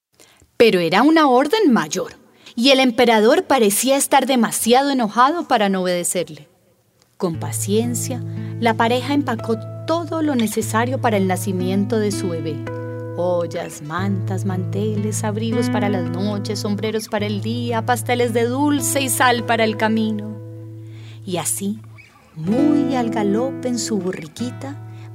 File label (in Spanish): Narración; Hacia Belén Va